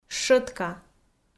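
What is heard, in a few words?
"щӧтка", Komi, female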